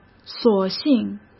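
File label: "索性", Mandarin Chinese; hsk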